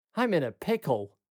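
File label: "I'm in a pickle", British English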